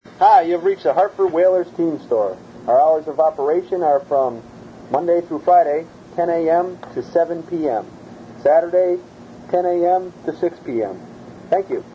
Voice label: Team Store Answering Machine, Outgoing; Whalers Team Store